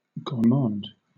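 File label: "gourmand", British English; pronunciations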